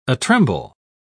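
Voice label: "atremble", English, British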